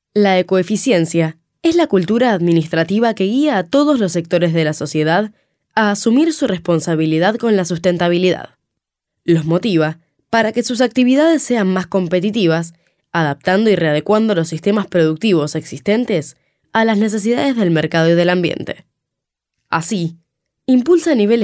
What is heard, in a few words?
e-learning